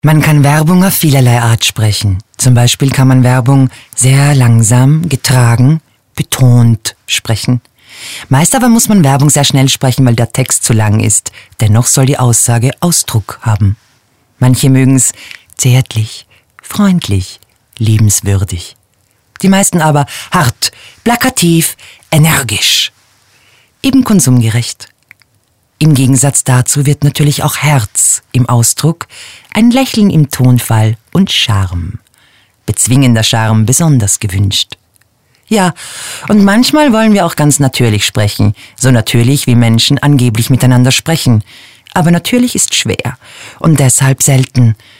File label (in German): werbetext